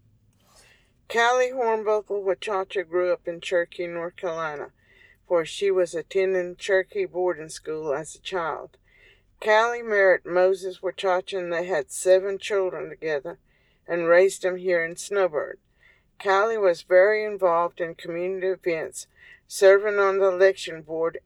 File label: english